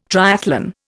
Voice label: "triathlon", Indian

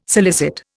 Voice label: "solicit", Indian

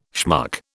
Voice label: "schmuck", British

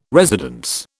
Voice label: "residence", British

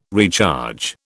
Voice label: "recharge", British

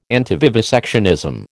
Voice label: "antivivisectionism", American

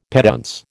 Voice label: "petuntse", American

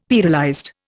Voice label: "pearlized", Indian; Dictionary

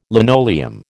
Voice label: "linoleum", American

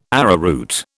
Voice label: "arrowroot", British

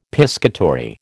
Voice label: "piscatory", American

